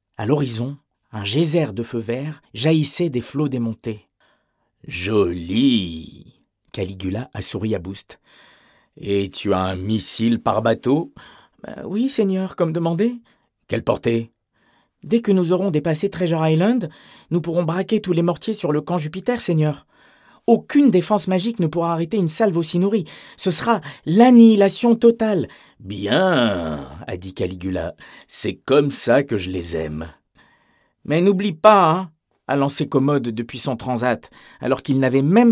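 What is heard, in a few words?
voice young heroic fantasy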